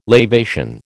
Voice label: "lavation", American

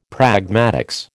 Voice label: "pragmatics", American

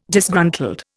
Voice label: "Disgruntled", Indian

